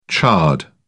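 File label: "chard", British English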